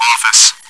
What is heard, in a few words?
chitchat, bot, voice commands; svencoop